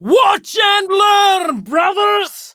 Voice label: Attack Prime Grineer Female; Tau; Battle Barks